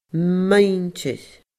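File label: "muinntir", Scottish Gaelic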